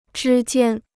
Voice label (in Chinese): "之间", Chinese